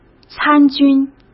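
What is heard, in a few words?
"参军", Mandarin Chinese; hsk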